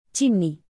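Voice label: "chimney", English word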